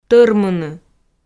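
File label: "тӧрмыны", Komi, female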